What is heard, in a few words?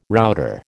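"router", American English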